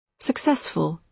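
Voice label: "successful", British English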